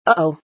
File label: uh oh